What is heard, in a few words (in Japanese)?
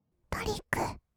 "トリック", kawaii voice; 音ゲー